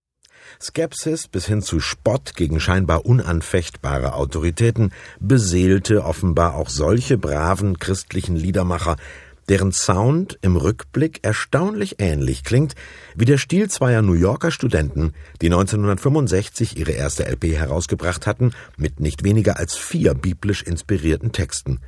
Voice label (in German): moderation